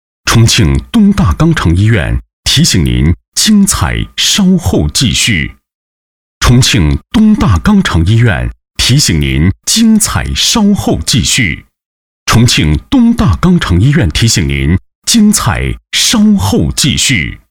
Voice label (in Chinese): 男25, 国语男声配音